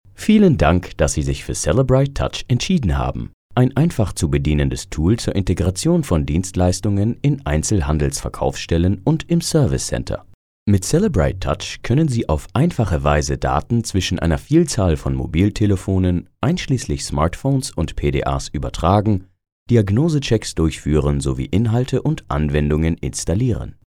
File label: German; קריינות